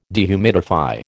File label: "dehumidify", American